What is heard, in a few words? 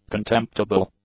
"contemptible", English; adjectives